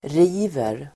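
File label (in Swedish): "river", Swedish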